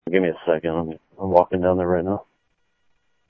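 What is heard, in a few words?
Sheriff West County, scanner audio trunk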